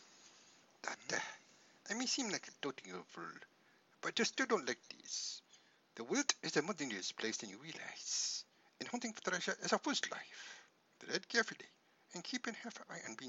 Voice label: cat, khajiit voice auditions